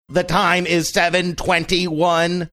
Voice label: saying the time is seven twenty one female robotic